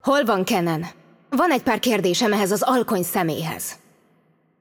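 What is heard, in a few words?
voice over, Hungarian; Game Outro; bloom minigame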